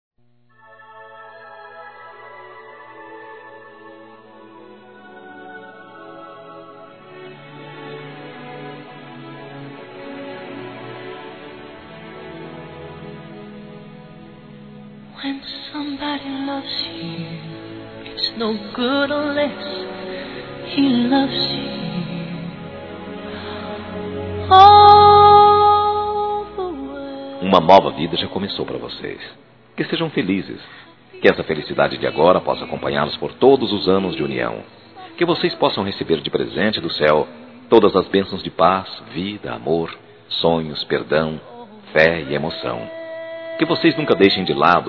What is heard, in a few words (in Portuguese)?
Aniversário Casamento Geral Masculino, Telemensagens; Telemensagens 2017; Aniversários